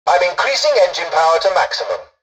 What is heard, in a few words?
tutorial dialogue, english; War Thunder